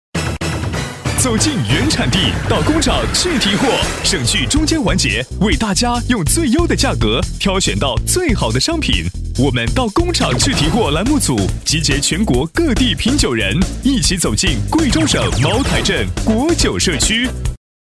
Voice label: 男 激情 促销 茅台酒广告

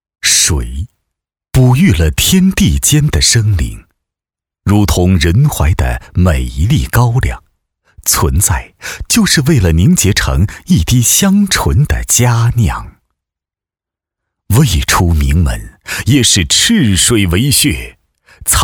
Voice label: 端午制曲配音

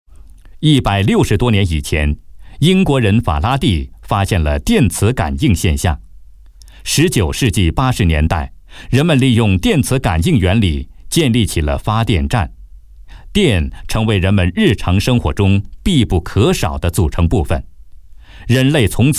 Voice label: 男 科普解说专题, 国语男声配音

